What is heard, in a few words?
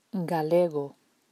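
"Galego", Galician; Today's (not Spanish) words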